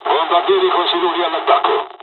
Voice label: japanese first wave, dialogs italian; War Thunder